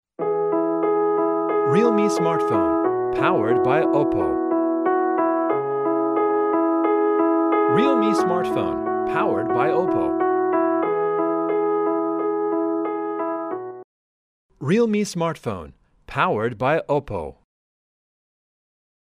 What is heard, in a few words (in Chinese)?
外籍英男 平稳 磁性 智能手机介绍